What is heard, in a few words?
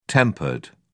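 "tempered", British English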